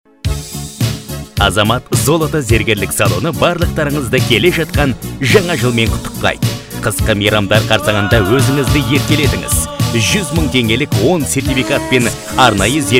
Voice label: WhatsApp Audio